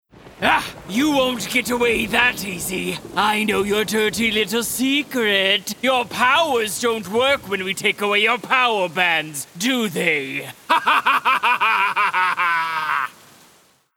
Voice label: Maniacle Villain